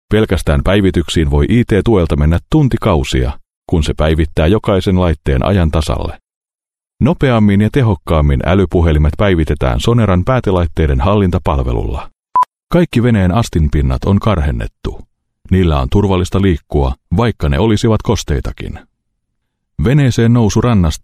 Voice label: finnish m narration; voice talents

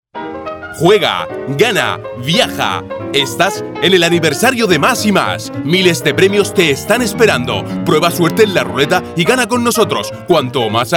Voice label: spanish m commercial, spanish voice demos

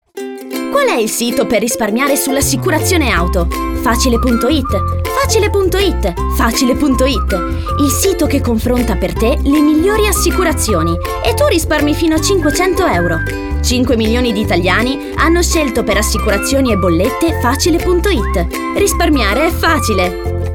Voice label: italian female commercial; voice demos

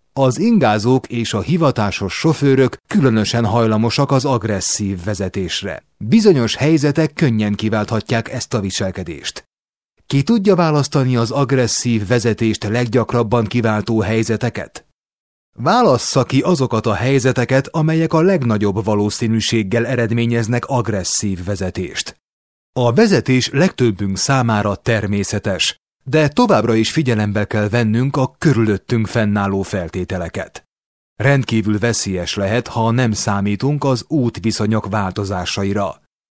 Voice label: hungarian m narration; voice demos

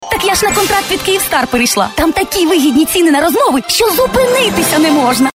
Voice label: ukrainian f commercial

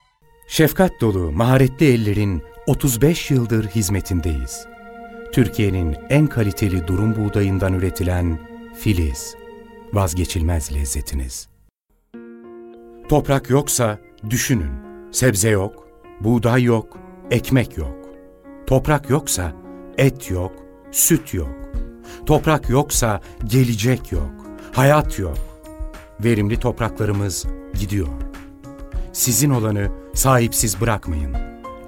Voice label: turkish m narration

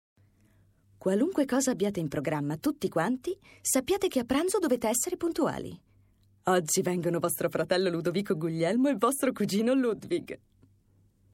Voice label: italian female narration; voice demos

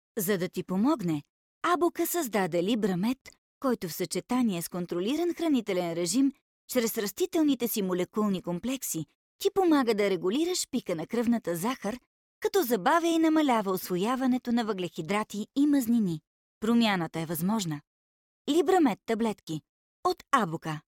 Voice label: bulgarian f commercial, voice; voice demos

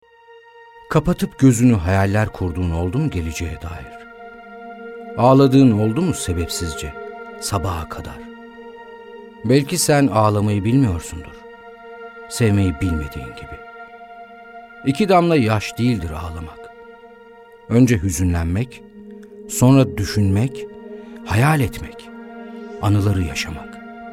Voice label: turkish m narration

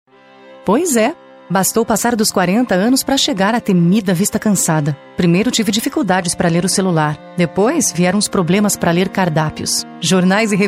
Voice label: brazilian f commercial, portuguese bra voice

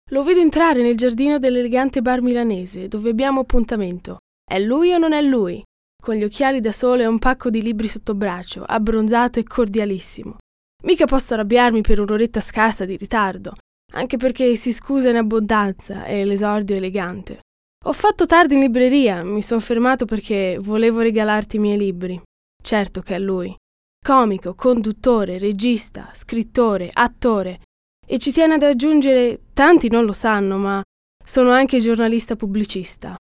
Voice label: italian female narration; voice demos

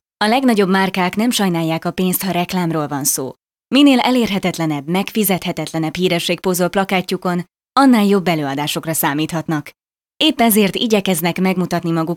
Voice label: hungarian f narration; voice demos

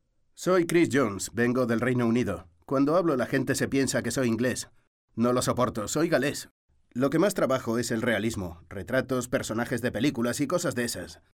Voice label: spanish m narration, spanish voice demos

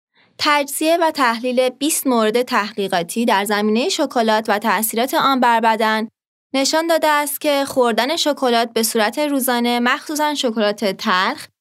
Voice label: farsi f narration; voice demos

